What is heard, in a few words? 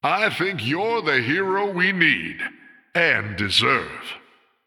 Male Human Idle